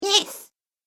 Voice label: Goblin Says Yes, monster; horror sound effects